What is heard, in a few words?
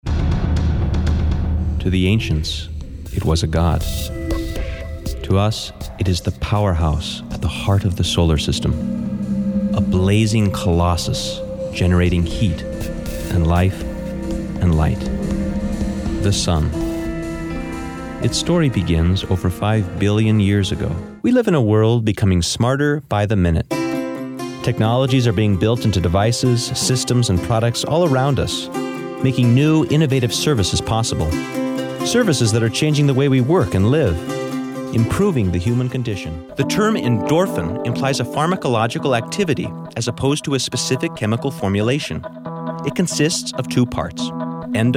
Narration